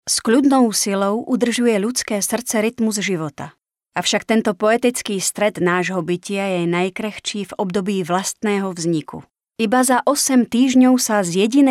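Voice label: Female Slovak documentary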